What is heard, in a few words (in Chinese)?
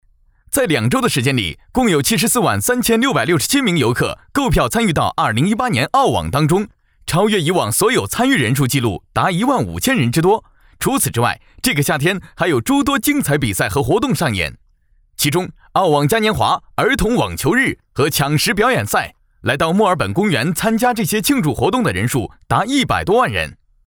男 飞碟说 澳网解说